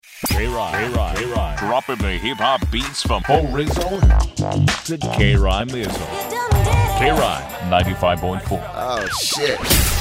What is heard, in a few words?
Radio Imaging